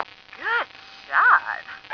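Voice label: good shot, female; stars; taunts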